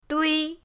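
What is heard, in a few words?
"dui1", Chinese pinyin; pinyindct-open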